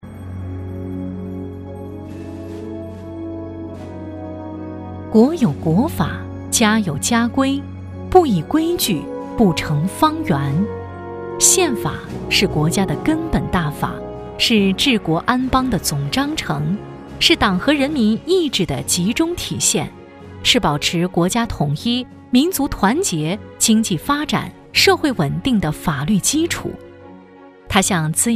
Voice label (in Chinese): 女 专题 试音; 我与宪法